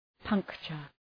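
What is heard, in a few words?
"puncture", English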